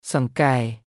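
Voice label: Khmer "សង្កែ"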